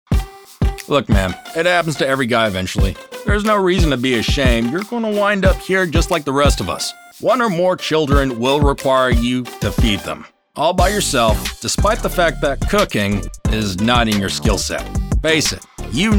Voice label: Explainer Corporate